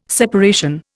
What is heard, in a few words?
"separation", Indian